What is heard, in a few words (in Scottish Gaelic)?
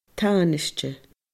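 "tanaiste", Scottish Gaelic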